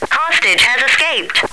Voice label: escaped, radio, woman

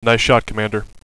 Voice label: "nice shot commander", radio bot; cstrike

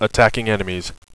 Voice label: attacking enemies, radio bot; cstrike

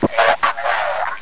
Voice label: lock n load, radio, zombie male

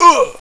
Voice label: pain, radio, bot

